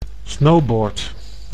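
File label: "snowboard", Dutch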